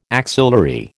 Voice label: "axillary", American